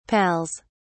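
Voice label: "pals", British English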